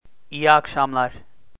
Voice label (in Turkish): "iyiaksamlar", turkish, greetings